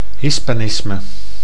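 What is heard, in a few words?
"hispanisme", Dutch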